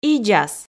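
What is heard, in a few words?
"идзас", Komi, female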